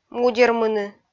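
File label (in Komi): "мудермыны", Komi, female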